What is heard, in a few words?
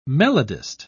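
"melodist", British English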